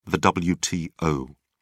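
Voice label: "wto", English, British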